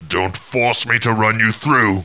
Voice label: annoyed; war2; humans; knight